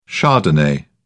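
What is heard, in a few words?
"chardonnay", British English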